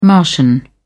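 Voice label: "martian", British English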